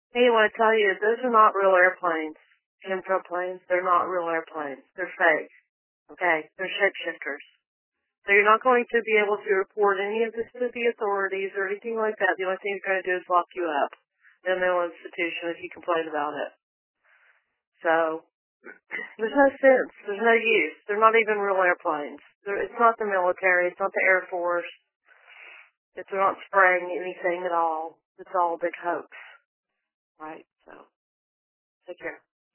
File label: voice message